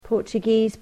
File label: "Portuguese", English